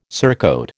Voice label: "surcoat", American